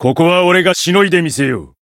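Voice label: Voice variable chant, Japanese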